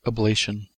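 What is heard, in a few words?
"ablation" US English; pronunciation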